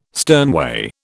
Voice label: "sternway", British